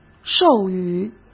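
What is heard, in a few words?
"授予", Mandarin Chinese; chinese-flashcards; hsk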